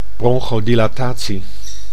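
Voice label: "bronchodilatatie", Dutch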